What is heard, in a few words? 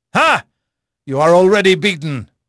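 English male; Pirates Of The Caribbean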